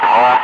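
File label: "5", spoken; Ka-50; Wingman; Digits; DCS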